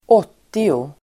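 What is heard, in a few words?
"åttio", Swedish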